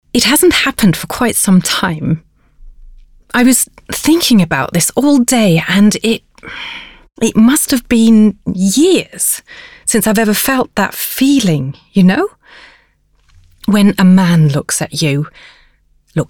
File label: ENGLISH